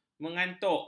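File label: "mengantuk", Malay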